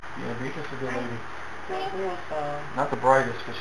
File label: childs voice